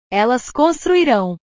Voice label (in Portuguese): "elas construirao", portugues; portugues/verbos